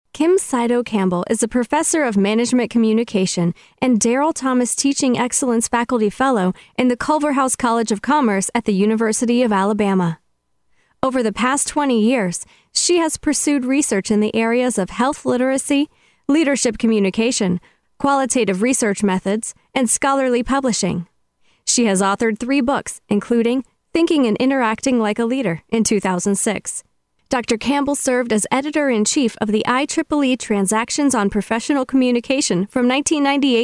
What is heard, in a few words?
eLearning, Female